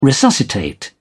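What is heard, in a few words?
"resuscitate", English, British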